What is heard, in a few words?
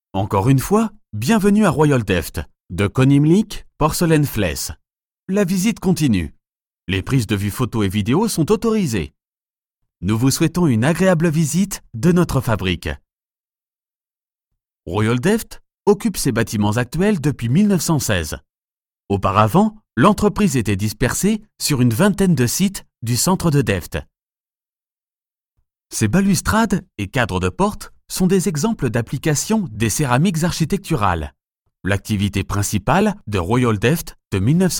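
FR M elearning, French, male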